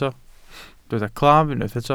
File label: "claf", welsh